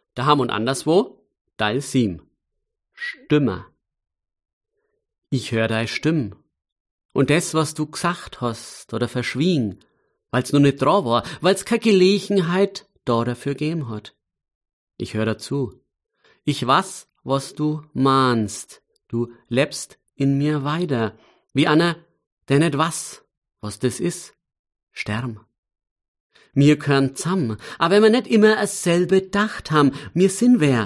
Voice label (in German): Mundart